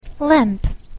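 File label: "lamp", English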